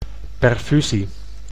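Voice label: "perfusie", Dutch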